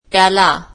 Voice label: marathi him